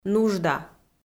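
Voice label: "нужда", Komi, female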